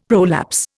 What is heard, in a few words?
"prolapse", Indian; Dictionary